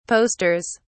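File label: "posters", British English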